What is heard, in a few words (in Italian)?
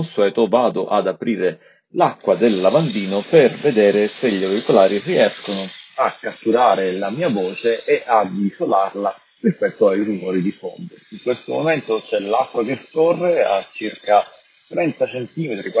Test registrazione Razer